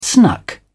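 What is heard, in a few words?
"snuck", British English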